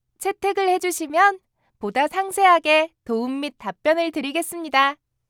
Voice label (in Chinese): 韩语-开心